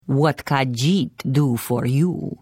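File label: Hello, Khajiit female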